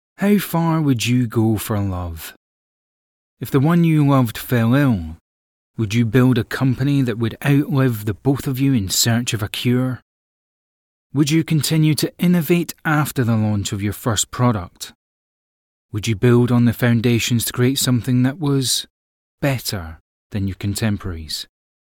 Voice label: Corporate, voiceover; Corporate reads